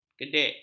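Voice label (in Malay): "kedek", Malay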